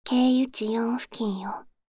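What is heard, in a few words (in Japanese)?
経由地4付近よ, カーナビ案内音声; カーナビ(で使えるかもしれない)案内音声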